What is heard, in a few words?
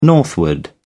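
"northward", British English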